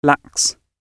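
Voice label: "lax", English; OED audio and pronunciations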